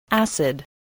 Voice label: "acid", English